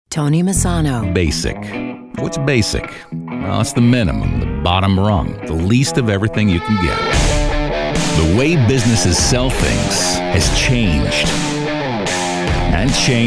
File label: Commercial